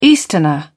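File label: "easterner", British English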